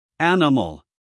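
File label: "animal", American English, male; everyone-can-use-english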